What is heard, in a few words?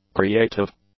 "creative", English; adjectives